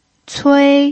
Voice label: "cui1", pinyin